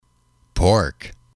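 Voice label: "pork", English, male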